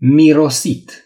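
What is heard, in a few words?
"smell", Romanian; gcompris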